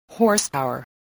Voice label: "horsepower", voice

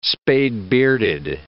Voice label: "spade-bearded", English

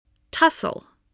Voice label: "tussle", voice

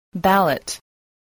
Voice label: "ballot", english

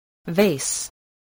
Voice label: "vase", English

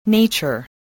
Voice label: "nature", voice

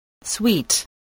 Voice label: "sweet", English

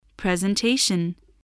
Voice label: "presentation", voice, spelling

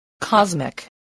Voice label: "cosmic", voice spelling

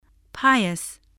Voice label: "pious", voice, English

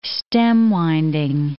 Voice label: "stem-winding", English

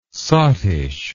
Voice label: "sottish", English

